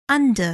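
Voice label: "under", English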